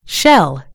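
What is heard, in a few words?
"shell", British English; gcompris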